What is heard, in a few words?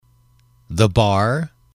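"bar", English, male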